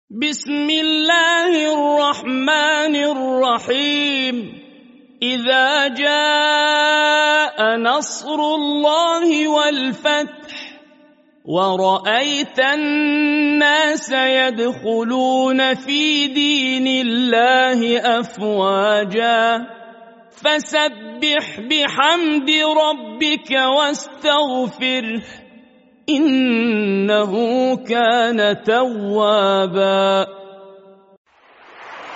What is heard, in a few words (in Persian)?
تلاوت ترتیل سوره نصر